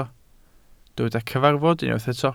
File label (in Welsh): "cyfarfod" Welsh